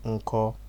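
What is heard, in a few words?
"nko", Nigerian Pidgin, male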